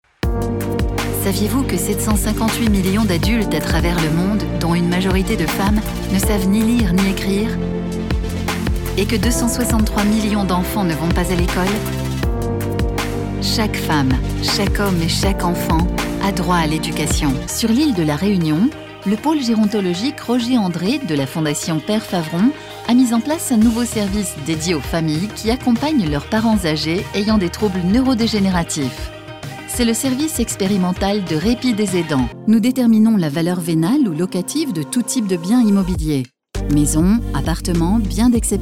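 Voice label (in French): Institutionnel Narration, French voice over; Showreel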